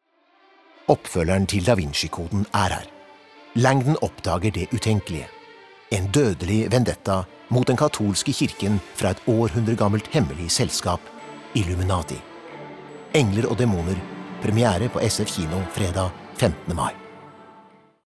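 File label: Norwegian movie trailer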